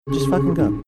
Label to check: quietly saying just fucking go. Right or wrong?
Right